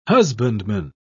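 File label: "husbandman", British English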